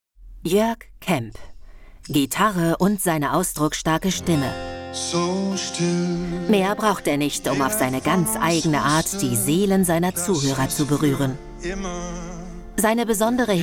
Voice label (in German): Voiceover Stadtklang